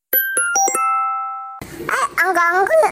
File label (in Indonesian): nada notifikasi hai Angkel Angkel